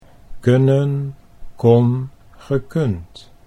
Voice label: kunnen kon gekund, Dutch

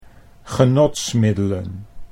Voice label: "genotsmiddelen", Dutch

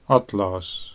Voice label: "atlas", Dutch

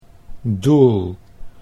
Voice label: "doel", Dutch

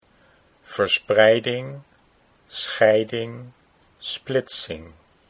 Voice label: verspreiding scheiding, Dutch

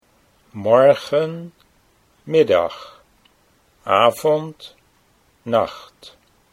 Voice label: "morgen middag", Dutch

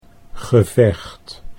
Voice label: "gevecht", Dutch

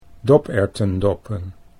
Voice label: "doperwtendoppen", Dutch

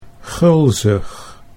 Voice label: "gulzig", Dutch

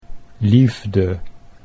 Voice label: "liefde", Dutch

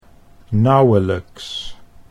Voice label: "nauwelijks", Dutch

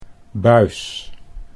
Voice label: "buis", Dutch

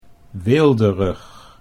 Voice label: "weelderig", Dutch

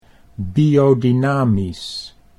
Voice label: "biodynamisch", Dutch

